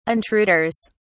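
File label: "intruders", English, uk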